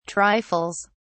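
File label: "trifles", British English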